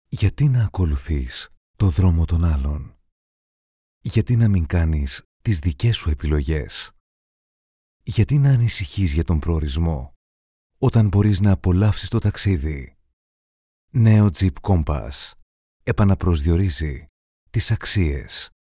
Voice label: Greek male

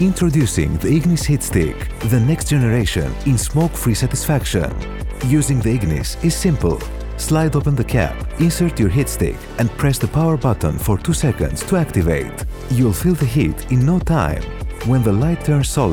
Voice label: Greek Male English sample

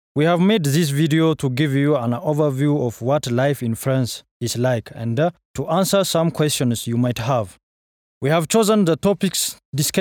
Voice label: Ethiopian M English

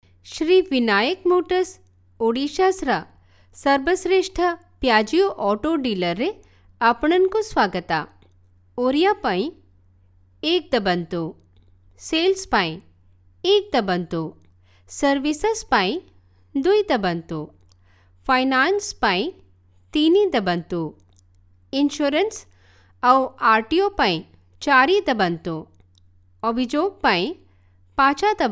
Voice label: oriya F prompt

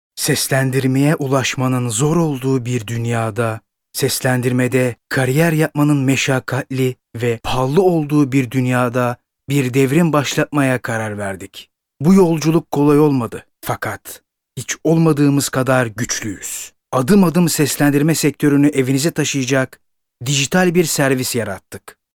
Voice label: Turkish M Narrator

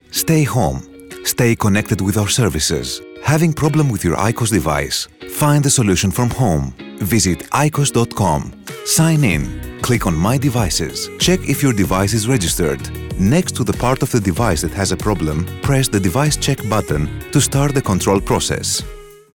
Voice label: Greek Male English sample

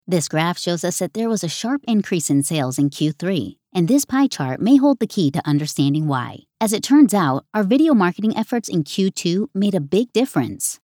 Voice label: English US F Corporate

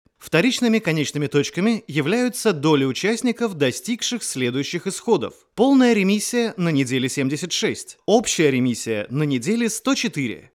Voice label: Russian male

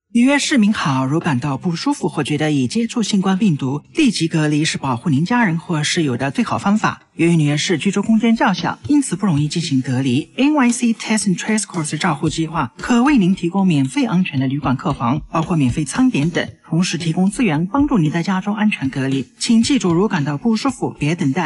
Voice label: Chinese Mandarin F

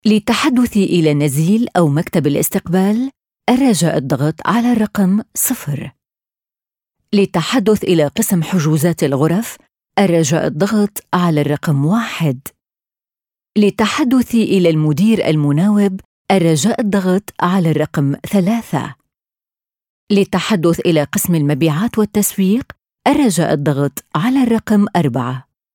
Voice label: Arabic F IVR

